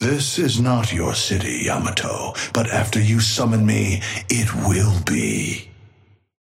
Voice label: Patron male ally yamato start; Amber Hand voice line - This is not your city, Yamato, but after you summon me, it will be.